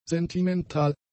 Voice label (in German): "sentimental", German; adjectives